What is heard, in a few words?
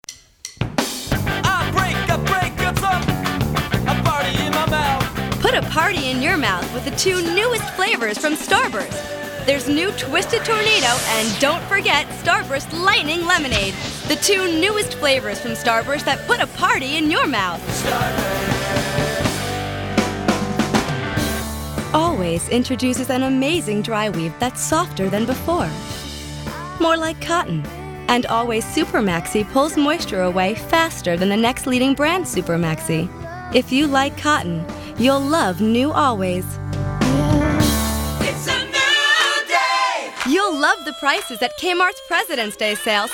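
voice over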